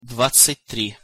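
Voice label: "dvadcat tri", Russian pronunciation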